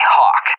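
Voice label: "Hawk", English, USA; AirDefence; DCS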